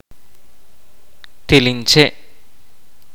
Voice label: "Marimba", kaqchikel

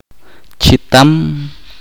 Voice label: "cerdo", chorti

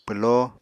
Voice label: "pwló", Apatani

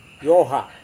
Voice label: "yoha", Serewen

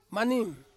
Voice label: "maning", Ansus; oil

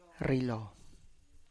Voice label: "rrilo", believe

